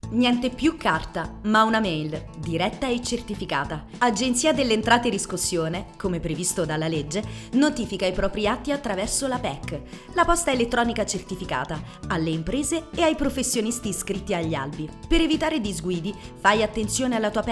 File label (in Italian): Spot radiofonico; Notifica a mezzo PEC